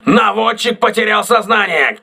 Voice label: gunner contused, crew ships russian